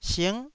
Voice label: "sing9", Taiwanese